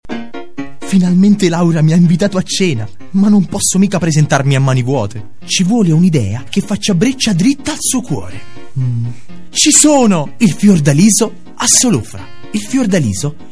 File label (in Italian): generica doppia voce; voci